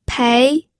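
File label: "pei", Mandarin Chinese, second tone; pinyin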